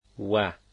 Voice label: "and", dari